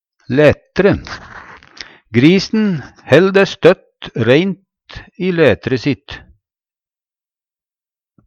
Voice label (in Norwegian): laetre, Numedalsmål